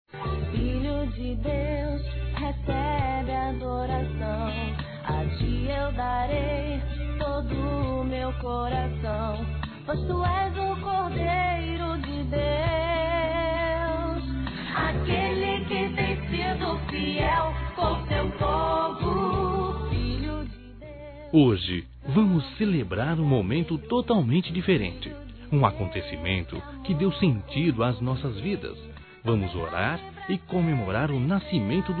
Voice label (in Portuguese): NATAL E ANO NOVO PARA IRMÃO EM CRISTO, masc; Misto